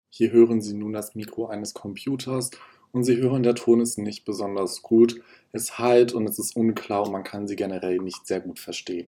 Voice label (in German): computer mikrofon; guter ton